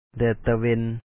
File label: ແດດຕະເວັນ dE3Et tawe2n sunshine, Lao